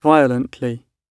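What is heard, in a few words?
"violently", British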